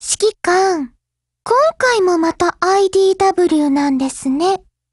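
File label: BUILDOVER, Japanese; GF Alarm; Carcano1938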